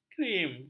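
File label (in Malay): "krim", Malay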